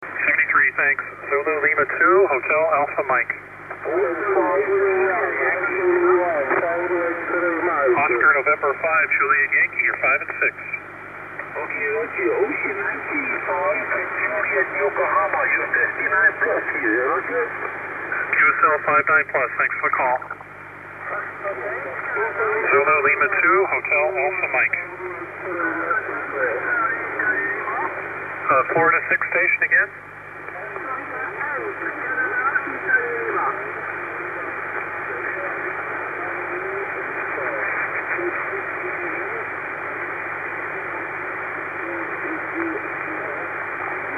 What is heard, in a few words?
12m ssb